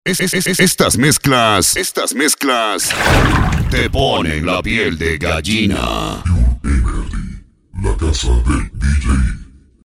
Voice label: spot; te ponen la piel de gallina puro energy